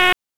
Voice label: Police Northwest District Tac 1